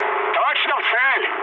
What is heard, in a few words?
bomb success, mood low, speech ru; War Thunder